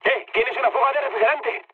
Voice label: damaged water, mood med, speech; War Thunder